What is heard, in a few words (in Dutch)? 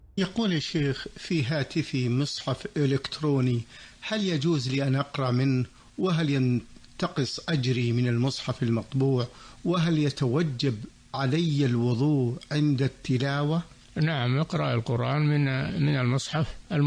Quraan lezen telefoon